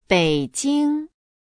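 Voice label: "beijing", Chinese; bac kinh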